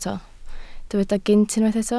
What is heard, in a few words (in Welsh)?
"gynt", Welsh